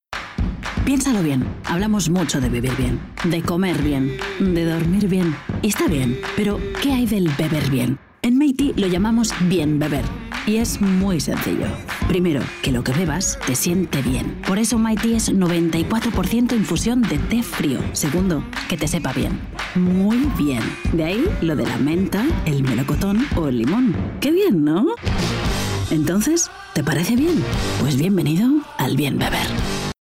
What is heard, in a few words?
female spanish tea comercial